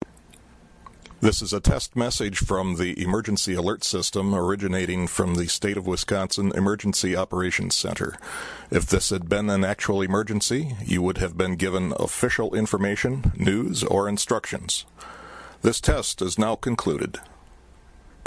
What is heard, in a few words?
EAS Test Message